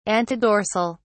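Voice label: "antedorsal", British English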